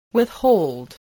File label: "withhold", voice, english